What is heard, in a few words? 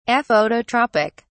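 "aphototropic", British English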